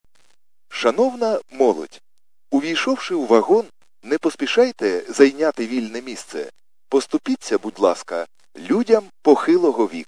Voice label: khs st male, subway station